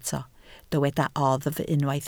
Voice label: "oddf", Welsh